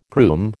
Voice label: "groom", American